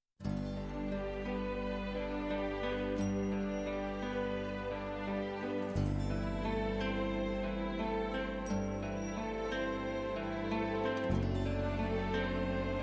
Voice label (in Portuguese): natal pai fem, natal pai voz feminina